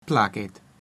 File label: "meet", Latin